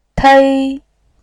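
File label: "tei", Mandarin Chinese, first tone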